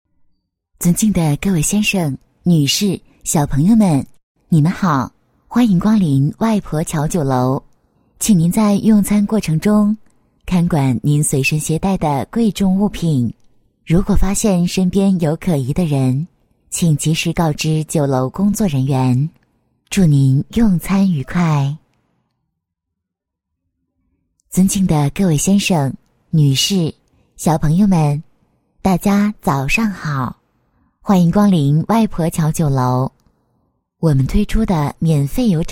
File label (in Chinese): 女 广播 尊敬各位先生、女士、小朋友们 你们好, 磁性女配